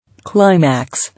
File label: "Climax", English